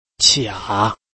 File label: "qia3", Chinese